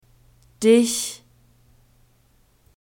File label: "dich", German